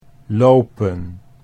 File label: "lopen", Dutch